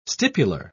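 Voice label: "stipular", English, British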